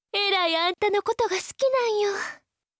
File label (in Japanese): 好きです 徳島; imas; 貴音